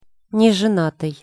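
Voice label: "nezenatyj", Russian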